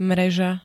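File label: "mreža", Slovak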